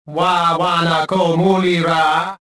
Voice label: taunt, rodian